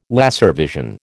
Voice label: "laservision", American

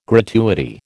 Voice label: "gratuity", American

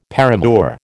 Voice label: "paramour", American

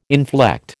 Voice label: "inflect", American

